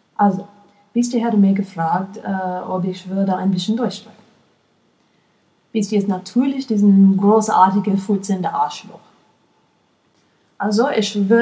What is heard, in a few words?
german sample